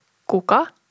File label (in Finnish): "kuka", Frasboken